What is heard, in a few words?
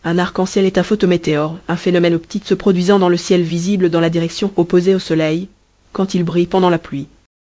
speaker, fr FR; piper voices